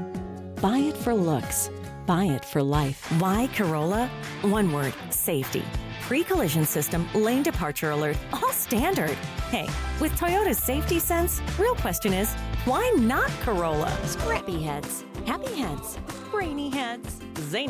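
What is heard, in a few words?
Commercial, commercial voiceover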